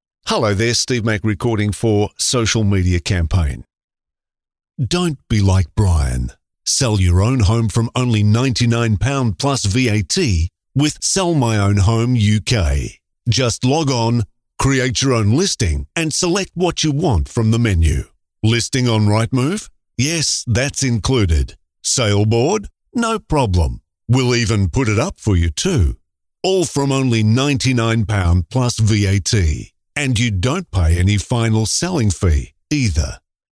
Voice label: BIG VOICE VO

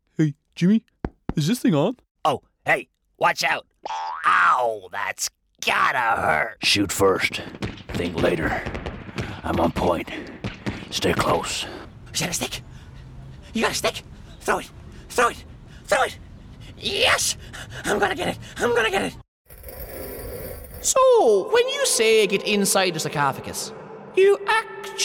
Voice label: Animation Reel

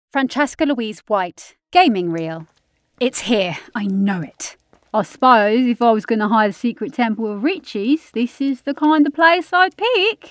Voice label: Caricature & Animation Voicereel; Gaming

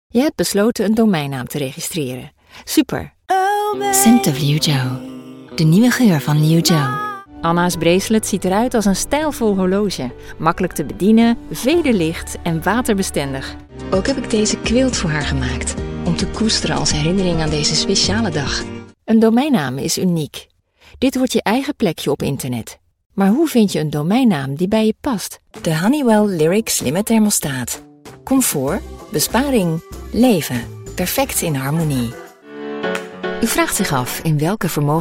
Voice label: DUTCH Showreel

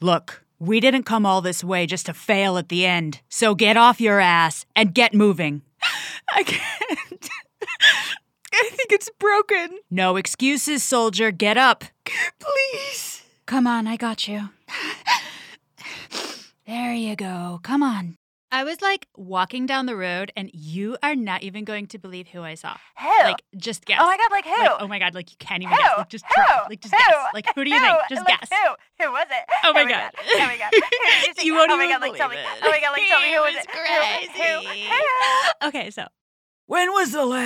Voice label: Character Showreel

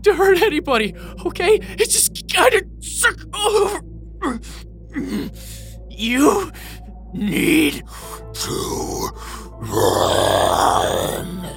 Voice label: Character

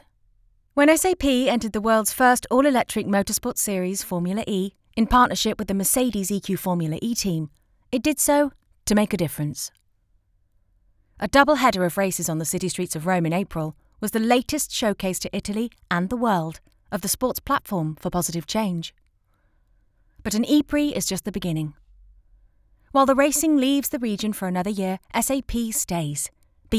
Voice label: Raw audio example Home Studio

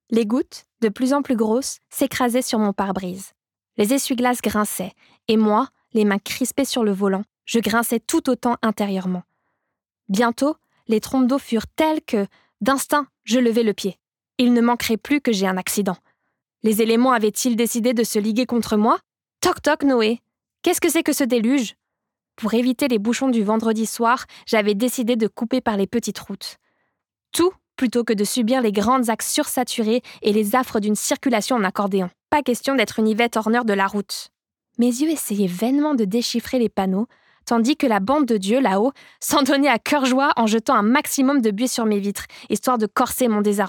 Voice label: Audiobook

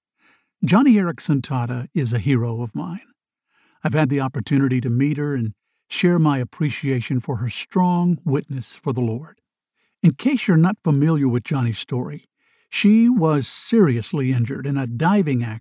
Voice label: Audio Book; Life Interrupted; Jeff Schreve

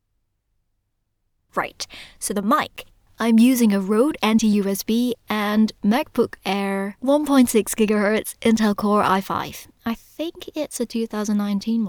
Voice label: Raw studio audio

